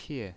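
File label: "pie4", Mandarin pinyin voice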